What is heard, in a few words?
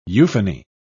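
"euphony", British English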